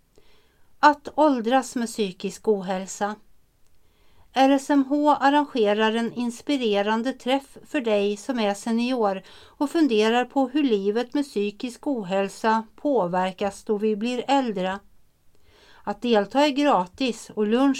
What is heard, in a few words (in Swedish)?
annons; valkommen pa lunchforelasning for dig som ar senior